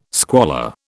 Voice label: "squalor", British